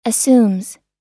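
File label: "assumes", US English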